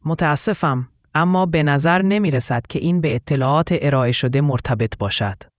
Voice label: question persian